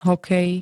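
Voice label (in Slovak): "hokej", Slovak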